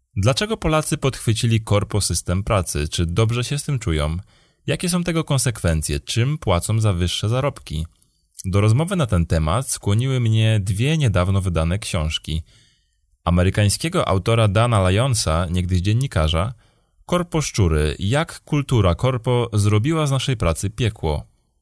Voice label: locutor polaco polish voiceover

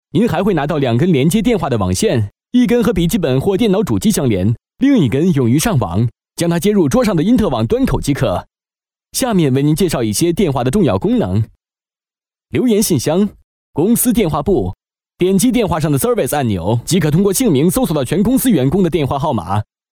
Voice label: locutor chino chinese voiceover; Voix off

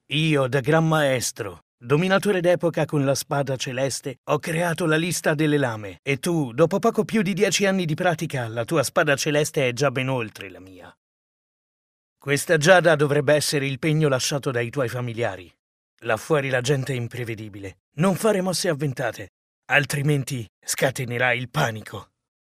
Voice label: locutor italiano, Italian voiceover; Locutor doblaje Italia; locutor de doblaje; Italian dubbing. Italian voice actor

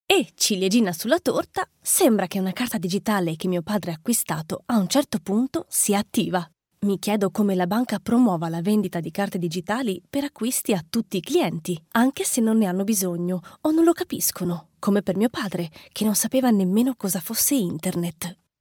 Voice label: italian voiceover locutora italiana

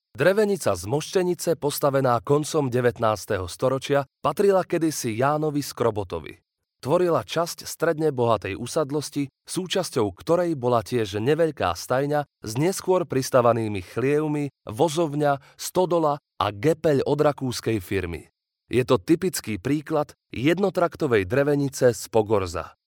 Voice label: slovakian voiceover locutor eslovaco

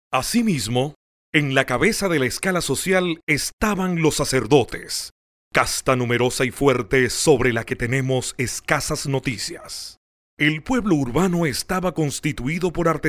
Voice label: venezuelan voiceover locutor venezuela

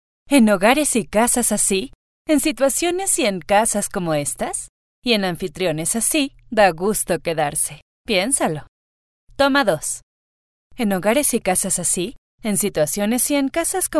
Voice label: locutora mexicana mexican voiceover; locutora nativa de Mexico

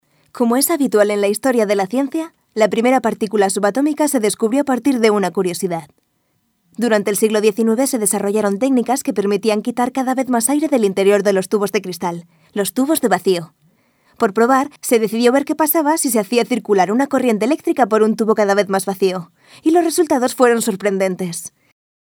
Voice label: locutora spanish voiceover

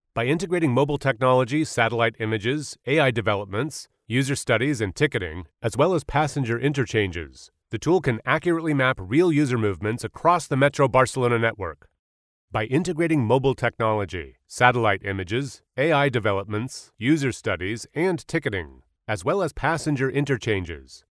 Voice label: locutor estados unidos north american voiceover; locutores norteamericanos USA

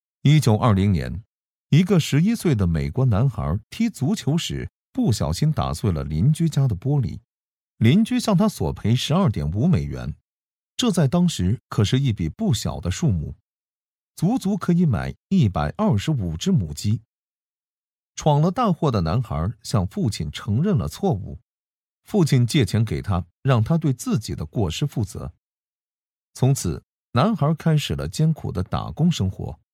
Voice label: locutor chino chinese voiceover

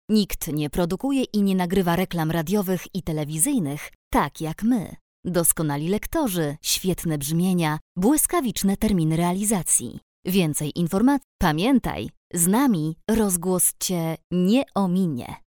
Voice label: polish voiceover locutora polaca; Locutores de Polonia

